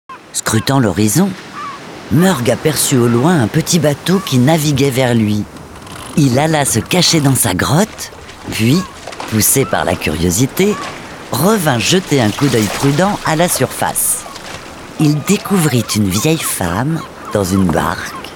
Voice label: Narration audiobook; LUNII; ocean chien

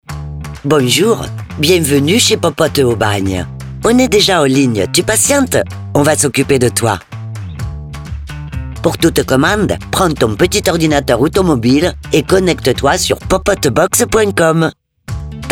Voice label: Audiotel; Popote box

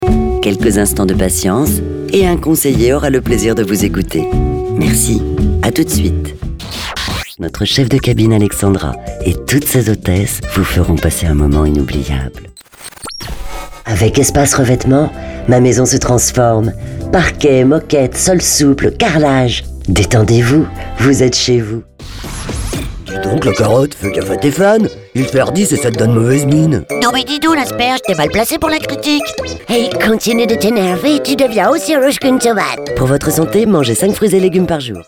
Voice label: MEDLEY SPOTS PUB

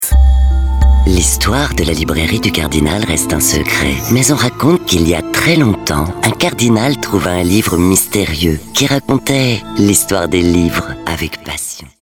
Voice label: Pub Librairie; Librairie du cardinal

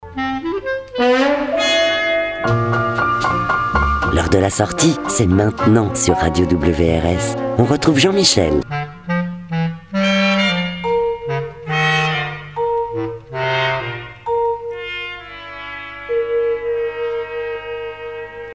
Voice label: Radio Liner; MAINTENANT ON RETROUVE

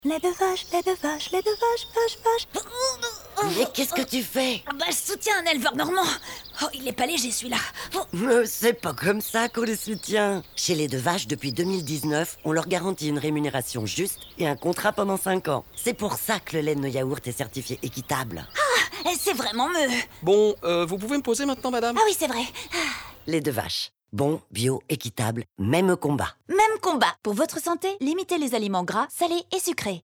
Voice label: Spot Radio; Aliments

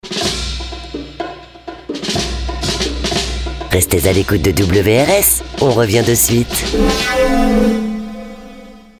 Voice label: Radio Jingle; reviens de suite

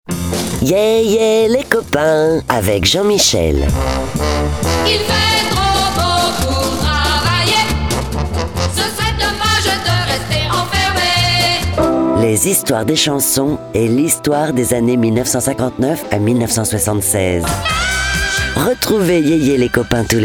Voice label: Radio Liner; ETE

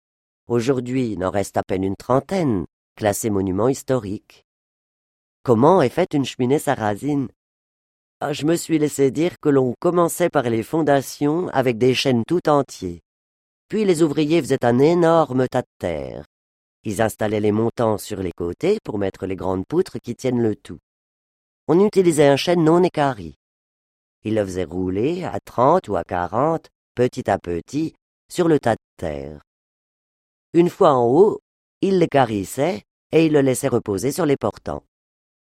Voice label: Perso accent bresse; CHEMINEES

